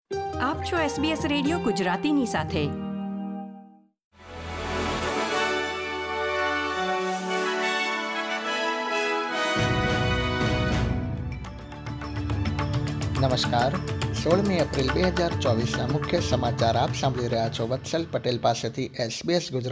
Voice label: gujarati news bulletin; sbs

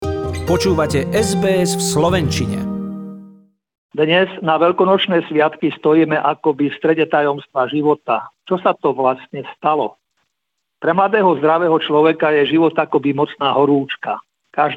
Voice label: slovak; sbs

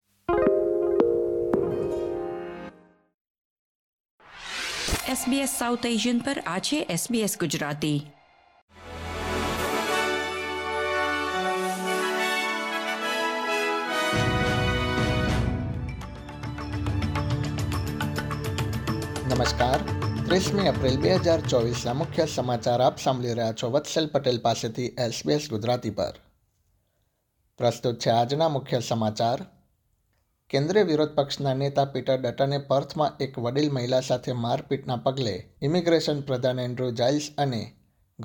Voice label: gujarati news bulletin; sbs

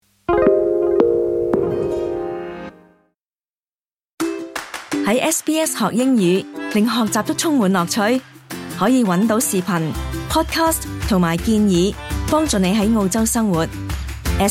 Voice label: cantonese; sbs

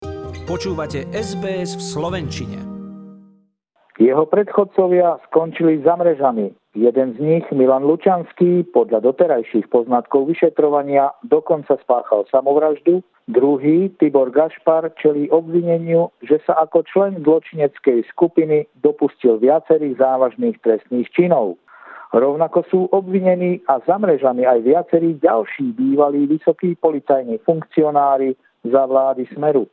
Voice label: slovak; sbs

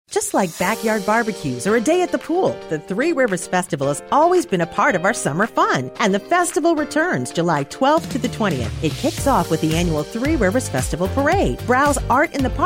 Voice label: dinka; sbs

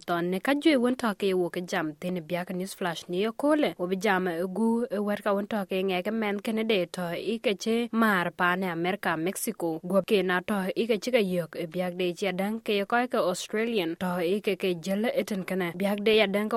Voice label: dinka, podcast; sbs

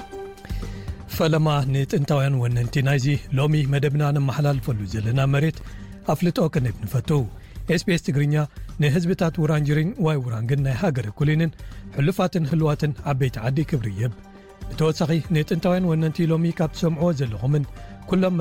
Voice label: tigrinya news podcast; sbs

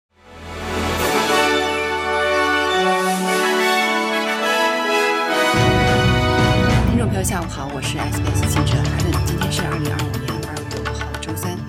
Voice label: mandarin newsflash; sbs

